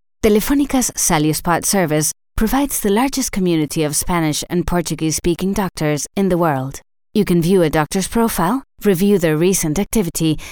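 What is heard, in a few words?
F acc eng, female, accent English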